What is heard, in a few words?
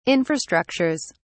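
"infrastructures", British English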